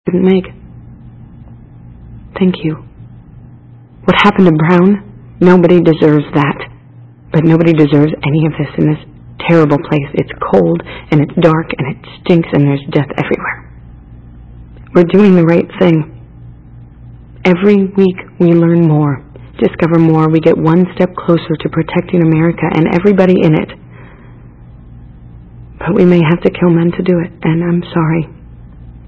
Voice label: Cell Call